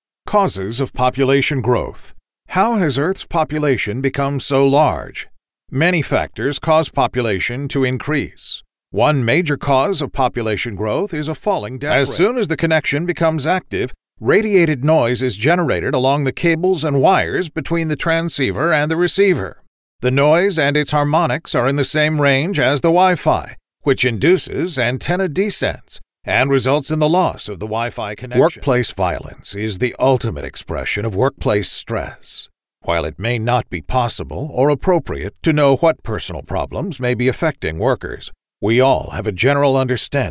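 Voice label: eLearning, American Male Voice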